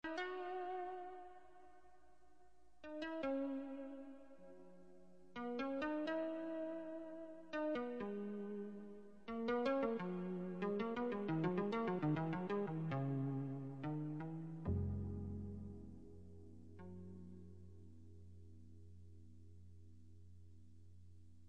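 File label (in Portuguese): ano novo female neutra; Ano Novo – Pessoa Especial – Voz Feminina; Telemensagens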